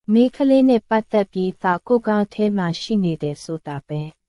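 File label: Myanmar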